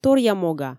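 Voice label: "торъямога", Komi, female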